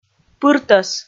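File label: "пыртӧс", Komi, female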